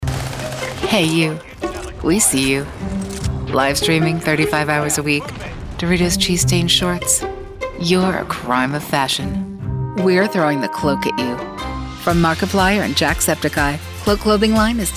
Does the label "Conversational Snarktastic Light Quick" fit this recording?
Yes